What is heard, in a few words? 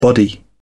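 "body", British English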